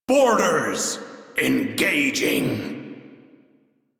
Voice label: Crew Enemy Spot, Male; Warframe; Railjack Crew; Arbiters Hexis